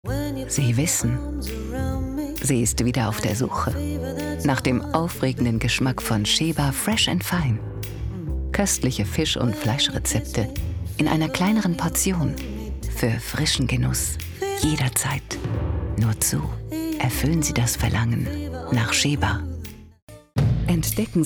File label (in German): TV Spot; Sheba